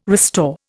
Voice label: "restore", Indian